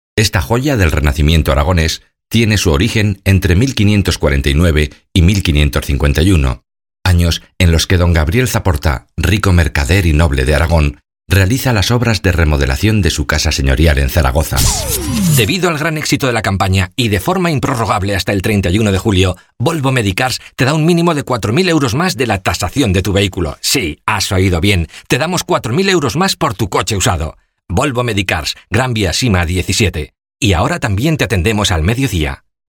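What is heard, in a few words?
male Castilian Spanish voice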